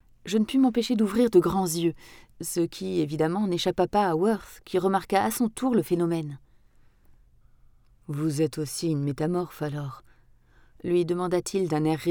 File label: Fiction Audiobook; Comédiens Interprètes